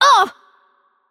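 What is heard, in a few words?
German attack heavy voice; bloom minigame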